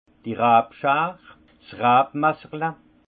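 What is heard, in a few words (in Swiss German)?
"rabschar", Haut Rhin; vin; serpette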